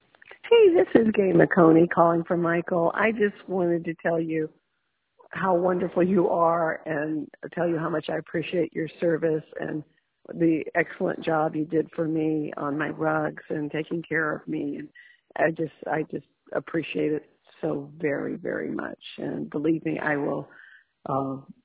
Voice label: voicemail